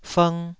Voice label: "fng2", taiwanese syllable